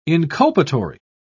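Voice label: "inculpatory", English, British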